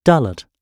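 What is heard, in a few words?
"dullard", English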